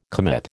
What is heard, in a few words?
"commit", American